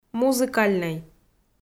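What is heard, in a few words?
"музыкальнӧй" Komi, female; kpv audio dict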